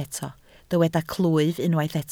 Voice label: "clwyf", Welsh